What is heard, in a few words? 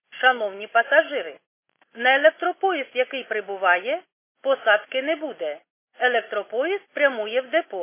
khs st female, subway station